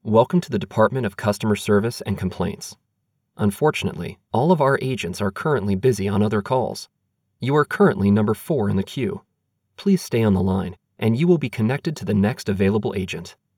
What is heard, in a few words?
IVR Messaging Sample